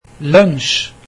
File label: "lunch", Norwegian